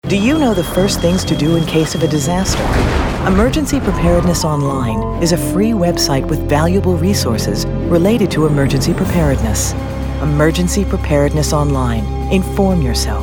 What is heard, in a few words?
Emergency PSA